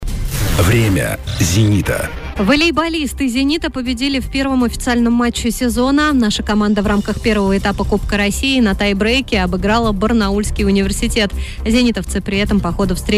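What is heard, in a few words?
on-air recording; Zapis efira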